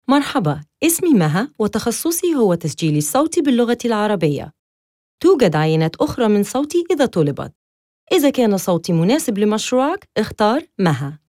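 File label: Arabic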